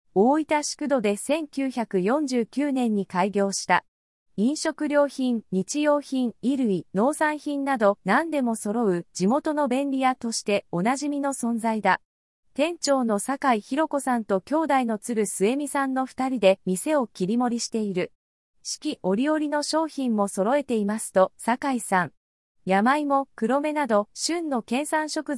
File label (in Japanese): speech, 合成音声; 十文字や酒店